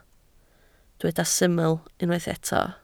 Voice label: "syml", Welsh

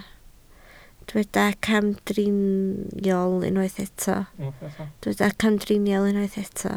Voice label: "camdriniol", Welsh

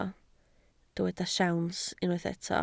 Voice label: "siawns", Welsh

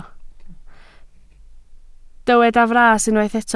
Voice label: "fras", Welsh

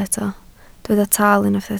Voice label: "taal", Welsh

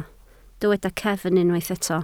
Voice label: "cefn", Welsh

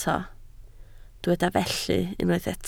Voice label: "felly", Welsh

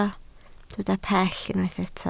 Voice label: "pell", Welsh

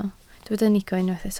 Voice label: "nico", Welsh

